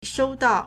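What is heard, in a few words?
"shōudào", Chinese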